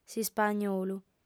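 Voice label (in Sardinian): "s'ispagnolu", female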